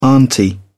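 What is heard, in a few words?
"auntie", British English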